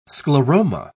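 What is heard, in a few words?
"scleroma", British English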